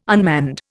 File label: "unmanned", Indian